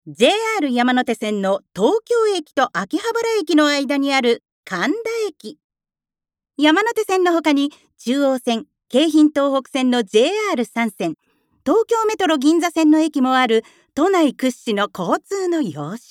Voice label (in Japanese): ナレーション